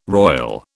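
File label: "roil", British English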